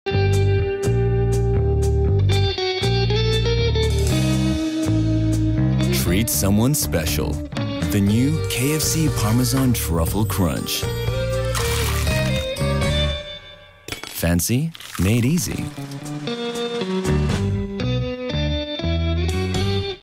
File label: Romantic; KFC Parmesan Truffle Crunch